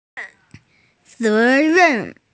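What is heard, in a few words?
"responsibility", female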